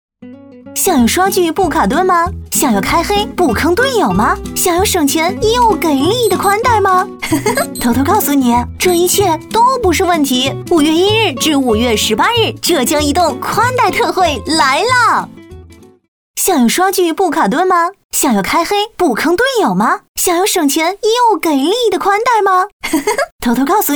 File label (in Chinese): 女 MG动画